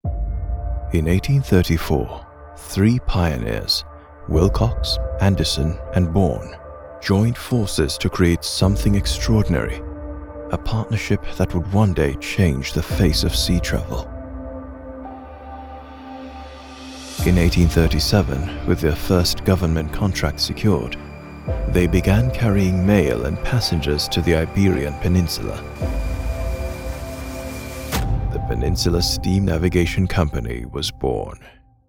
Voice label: Standard RP British Accent